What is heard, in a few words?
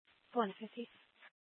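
Police Department Dispatch, scanner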